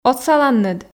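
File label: "отсаланныд", Komi, female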